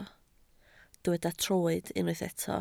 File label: "troed", Welsh